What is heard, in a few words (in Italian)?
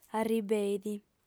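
"arribeit", lingua sarda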